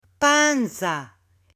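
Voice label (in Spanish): "panza", Spanish, male; CUERPO; AppLenguaje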